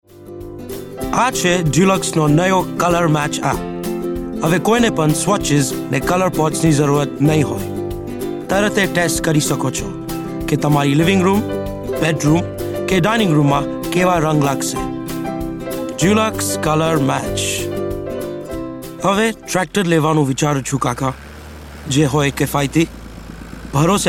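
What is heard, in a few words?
GU General, Gujarati; GoLocalise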